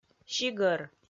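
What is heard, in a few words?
"сигӧр", Komi, female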